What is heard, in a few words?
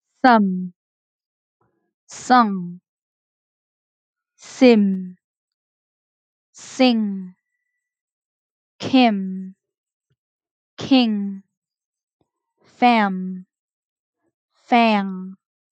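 Minimal Pairs m ng, pronunciation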